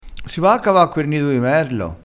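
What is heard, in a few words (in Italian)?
"sdegnato", dialettale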